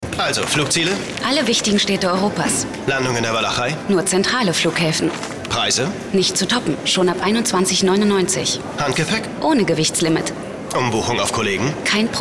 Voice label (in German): Lebendig